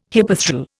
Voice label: "hypethral", Indian